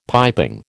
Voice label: "piping", American